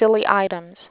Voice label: creaky